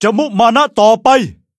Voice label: level up, th; cookie run

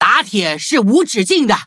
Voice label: loading, zh-hant; cookie run

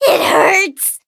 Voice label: hit, en; cookie run

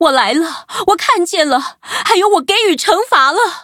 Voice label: victory, zh-hant

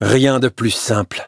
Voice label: victory, fr

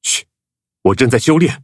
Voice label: touch, zh-hant

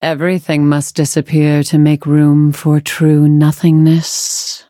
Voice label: levelup, en; cookierunwiki

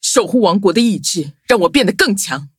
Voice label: levelup zh-hant; cookie run

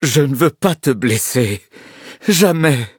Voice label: loading, fr

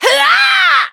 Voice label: hit, en

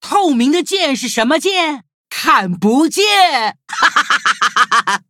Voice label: loading, zh-hant; Cookie Run

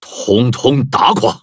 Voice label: battle, zh-hant; Cookie Run

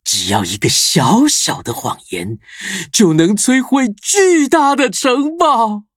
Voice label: loading, zh-hant; Cookie Run

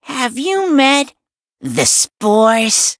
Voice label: touch, en; Cookie Run

